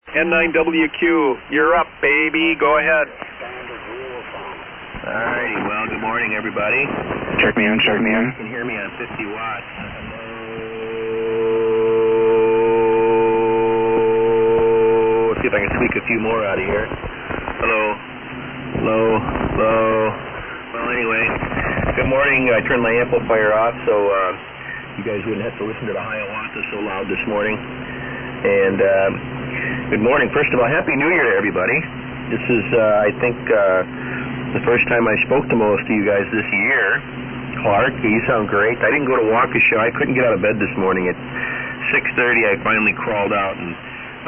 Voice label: Yaesu FT-101E & D-104 Mic

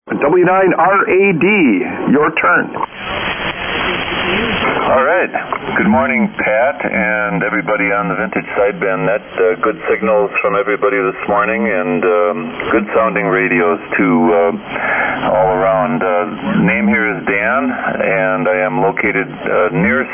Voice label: Yaesu FT-101E Shure 444 Mic; Sussex, Wi.

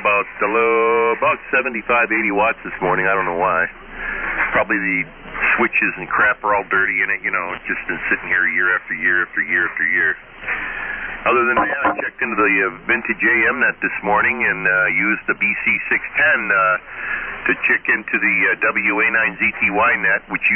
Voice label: Yaesu FT-101E

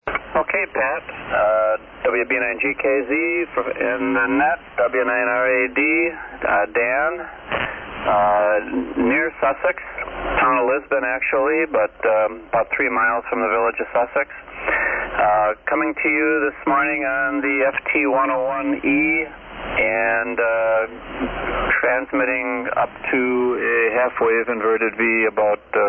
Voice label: Yaesu FT-101E Shure 444 Mic; Sussex, Wi.

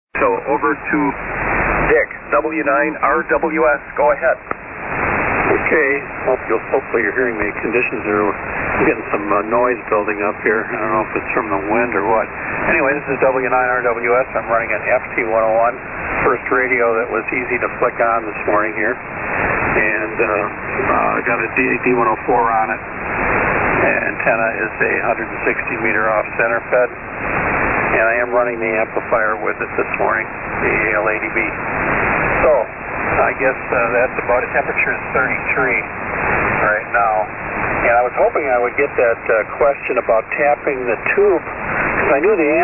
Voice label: Yaesu FT-101 D-104 Mic & AL-80 Amp; Fort Atkinson,Wi.

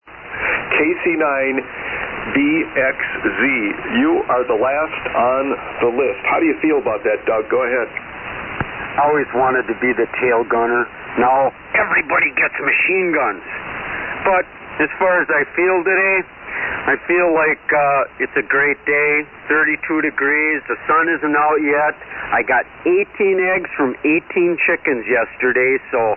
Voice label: Yaesu FT-101EE & D-104 Mic; Hustisford, Wi.

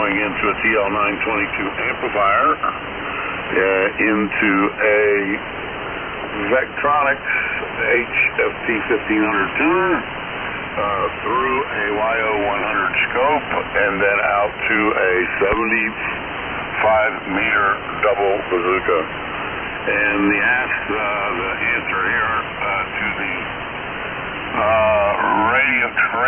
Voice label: Tempo 2020 Shure 526 Mic & TL-922 Amp; Lima, OH

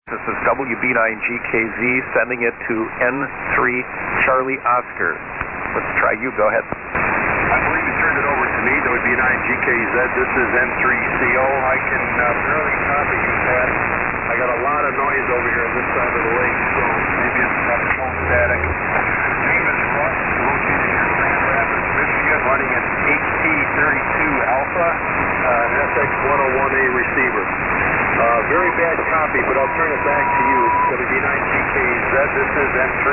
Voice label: Halli HT-32A SX-101A & D-104 Mic; Byron Center, MI.

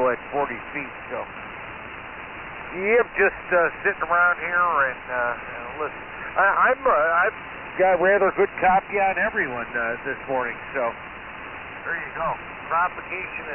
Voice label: Heathkit HW-101 & Shure 522 Mic; New Lenox, IL.

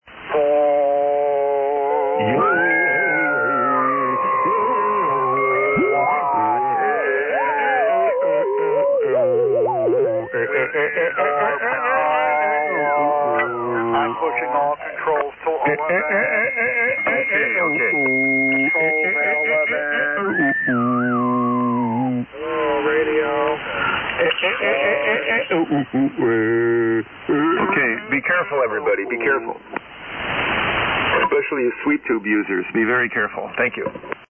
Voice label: SSB Net Tune-Up

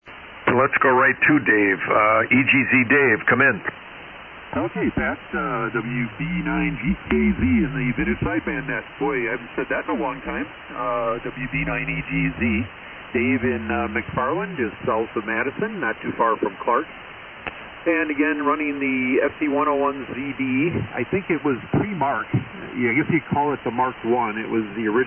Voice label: Yaesu FT-101ZD YD-844A Mic & FL2100 Amp; McFarland WI.

